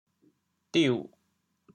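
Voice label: "diu6", Teochew, chaoyang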